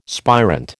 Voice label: "spirant", American